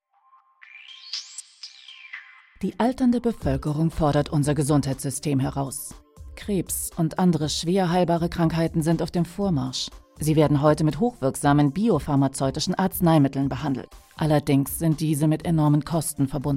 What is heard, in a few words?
Off Voice; Biosimilars